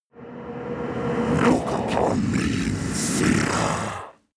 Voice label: demon dark voice reverse look upon